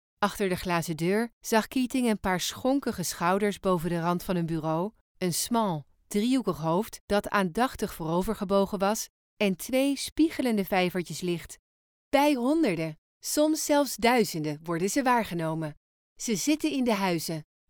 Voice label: Audiobook